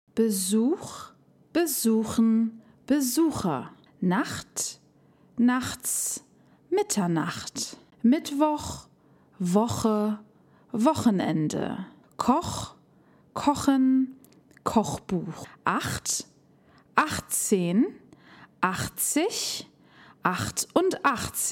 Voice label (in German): DaF Aussprache Ach laut Übung